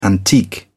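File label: "antique", British English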